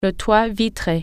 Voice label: "sunroof", French

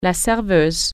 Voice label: "waitress", French

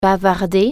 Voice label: "chat", French

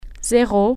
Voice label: "zero", French

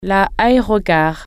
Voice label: "terminal", French

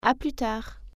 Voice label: "see you soon", French

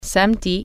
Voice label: "saturday", French

